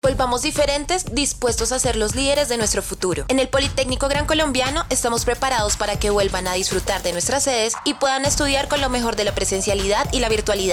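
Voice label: comercial, Colombian Spanish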